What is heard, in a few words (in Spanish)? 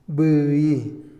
habitar, Otomí, hombre; INALI